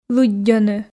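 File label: "лыддьӧны", Komi, female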